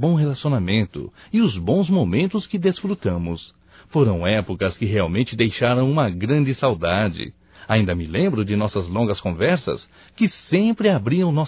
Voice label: SAUDADES AMIGA, Só Voz, masc; Telemensagens; Telemensagens 2017

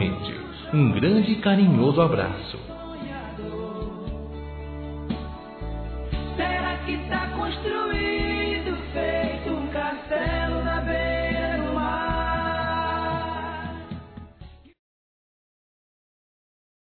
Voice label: Otimismo Para Cunhado Masculino; Telemensagens

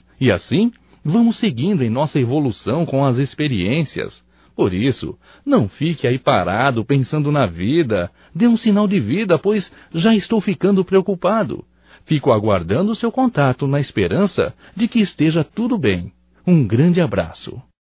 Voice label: SAUDADES AMIGO, Só Voz, masc; Telemensagens; Telemensagens 2017

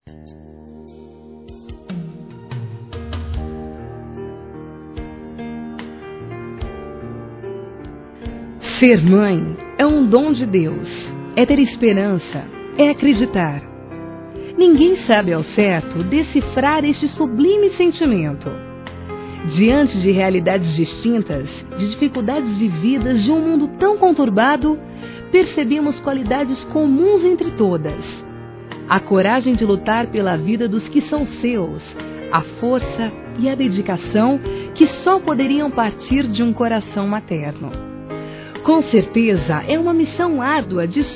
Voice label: HOMENAGEM A MÃE EM GERAL F; Telemensagens 2017

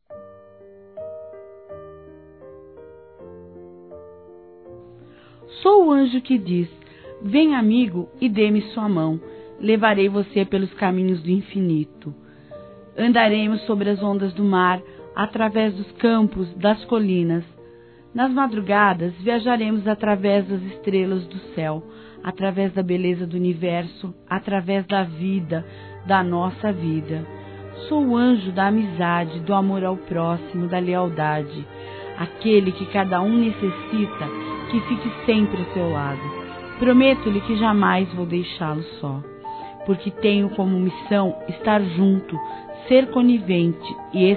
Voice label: Feminino, Telemensagens; Anjo da Amizade

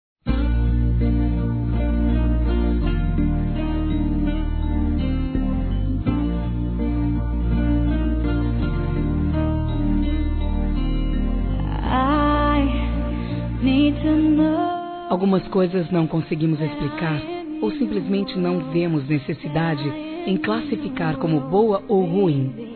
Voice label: Romantica Feminino; Preciso De Você; Telemensagens; Românticas

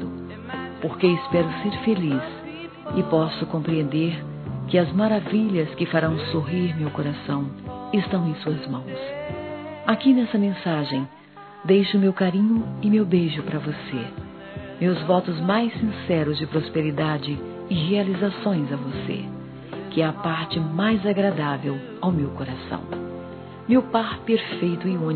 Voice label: Ano Novo Romântico Feminino, Telemensagens; Natal e Ano novo

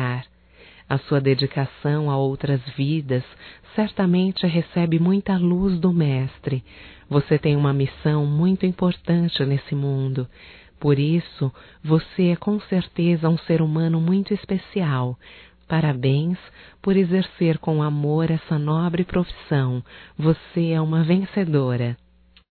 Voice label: Dia Médica Feminino; Datas Mistas; Telemensagens 2017

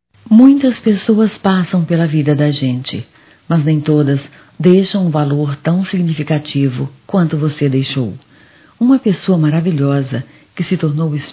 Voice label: Madrasta-f; Dia das Maes; Telemensagens 2017

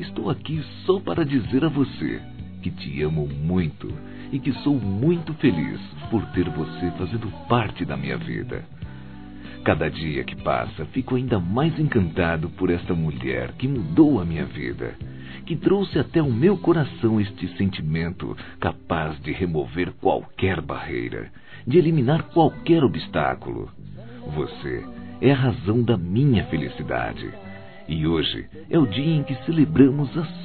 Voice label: Romantica Aniversário Namorada Masculino, Telemensagens

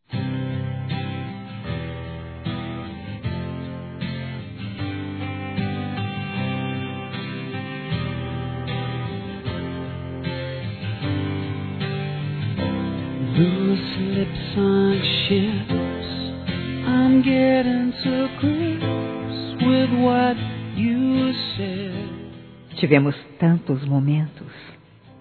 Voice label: Mensagem de Carinho Feminino; Telemensagens; Telemensagens 2017

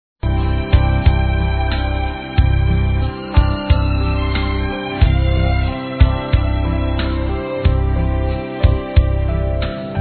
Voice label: Neutro Católico Feminino, Telemensagens Aniversários; ANIV. Evangelico

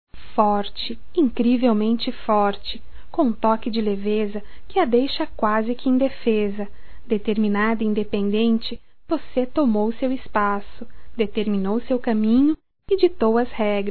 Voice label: DIA INTER DA MULHER (NEUTR) (F), Telemensagens

